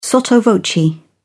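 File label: "sotto voce", British English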